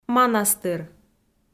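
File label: "манастыр", Komi, female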